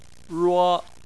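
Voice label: "ruo" tone 1, Chinese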